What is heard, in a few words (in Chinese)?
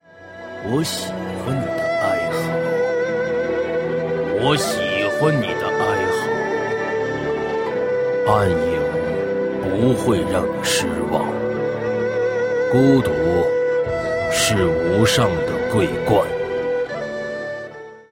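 nan zhonglaonian, 男 中老年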